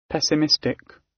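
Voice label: "pessimistic", British; Life, leisure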